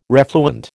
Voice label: "refluent", American